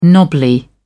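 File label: "knobbly", English, British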